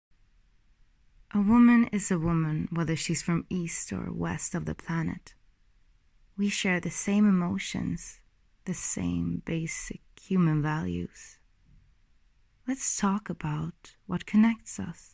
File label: American English Voice Over Fashion Campaign